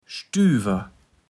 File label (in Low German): "Stüwer", kaevels platt